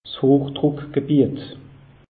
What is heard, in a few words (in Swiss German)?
"hochdruckgebiet", alsace; meteo